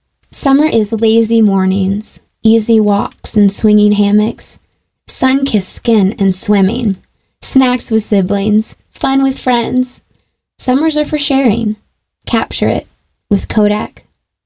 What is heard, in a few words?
Commercial; Kodak Commercial